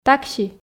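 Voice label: "такси", Komi, female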